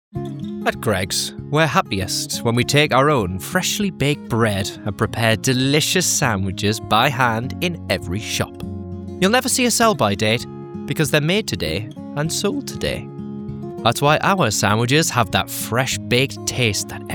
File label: male English multiple accents commercial compilation; english northern accents